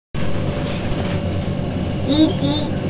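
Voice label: "le4", Mandarin Chinese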